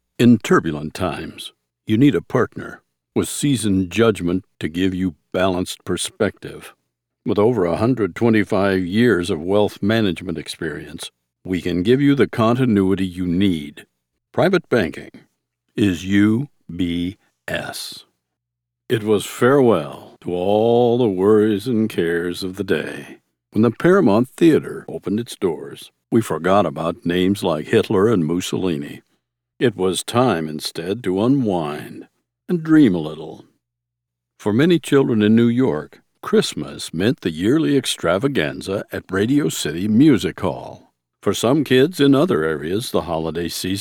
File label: Narration